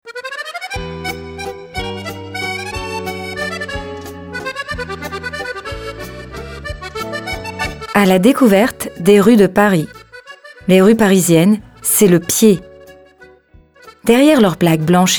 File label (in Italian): Audioguida